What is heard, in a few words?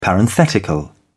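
"parenthetical", British English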